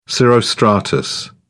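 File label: "cirrostratus", British English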